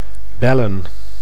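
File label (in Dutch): "bellen", Dutch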